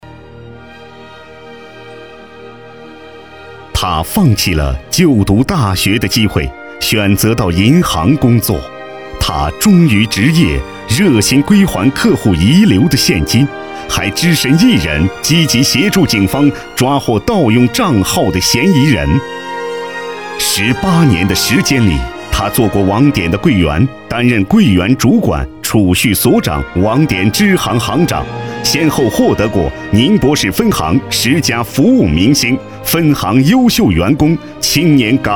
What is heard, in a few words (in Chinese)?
男国 专题 颁奖; 榜样的力量